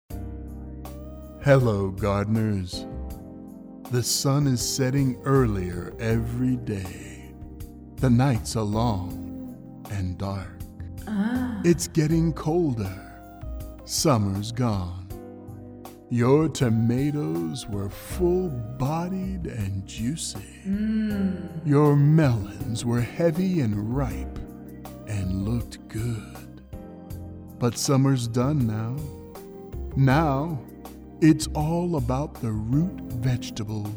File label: Commercial